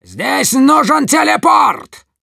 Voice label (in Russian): Demoman need teleporter, ru